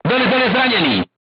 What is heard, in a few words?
voice message commander wounded, crew tanks czech